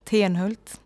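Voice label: "Tenhult", Swedish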